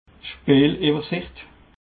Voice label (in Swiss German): "speelewersicht", alsace